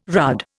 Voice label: "rudd", Indian